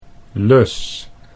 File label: "lus", Dutch